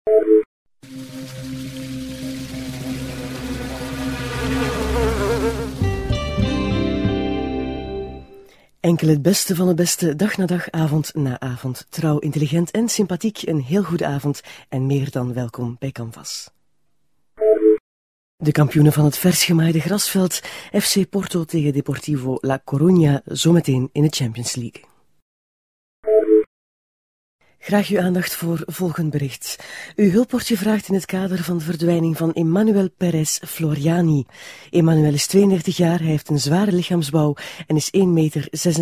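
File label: Belgian, female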